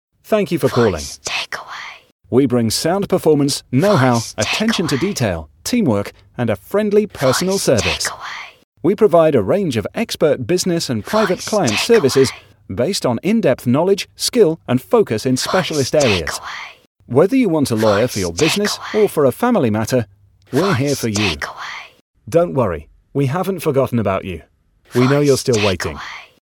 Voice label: Solicitors Smooth Male